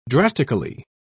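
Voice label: "drastically", British English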